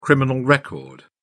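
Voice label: "criminal record", British English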